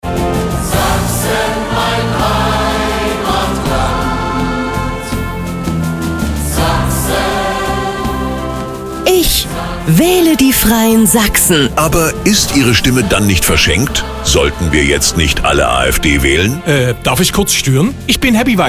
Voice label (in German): Radiospot; FREIE SACHSEN; landtagswahl; Sächsischen Landtag